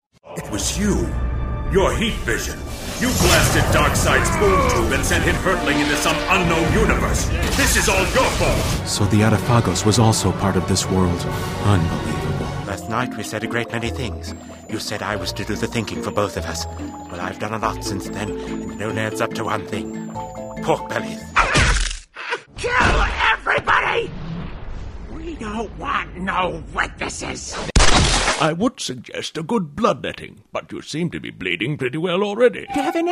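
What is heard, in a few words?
animation voice over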